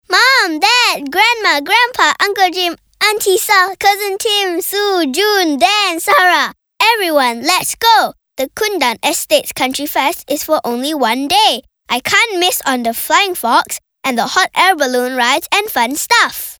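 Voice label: English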